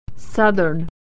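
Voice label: "southern", American English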